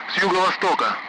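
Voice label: Speech, Russian; Allied Flight; DCS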